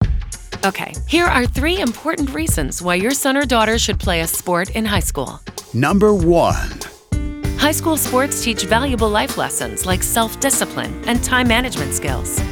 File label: audio spot